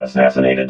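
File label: "assassinated", robot voice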